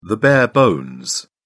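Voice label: "bare bones", British English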